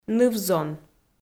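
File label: "ныв-зон", Komi, female; audio dict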